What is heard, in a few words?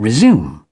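"Resume", British English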